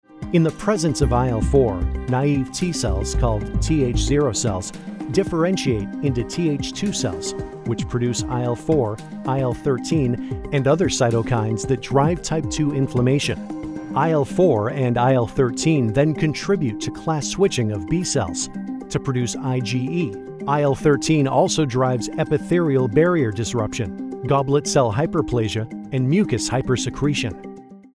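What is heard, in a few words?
Medical Narration, standard us; Asthma; phone message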